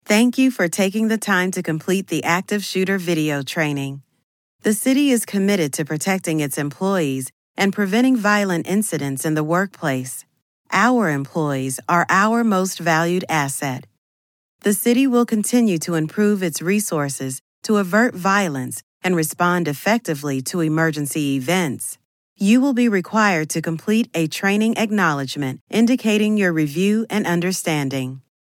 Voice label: US, training

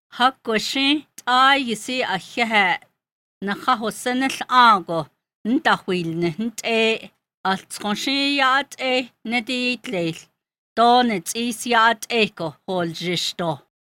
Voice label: NAVAJO

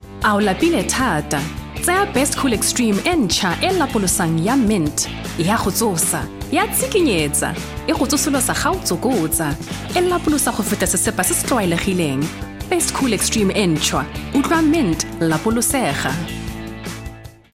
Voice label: SETSWANA

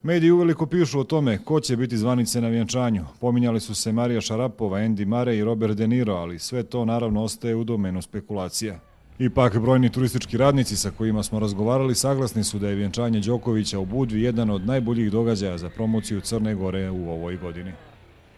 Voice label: Montenegrin

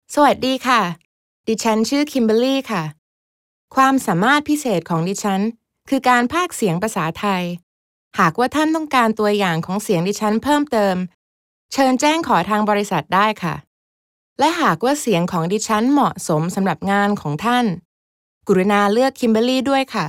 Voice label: Thai

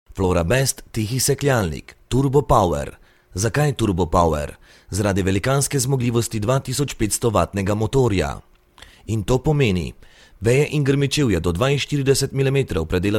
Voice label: Slovenian

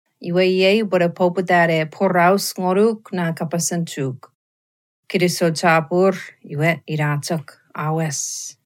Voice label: Chuukese

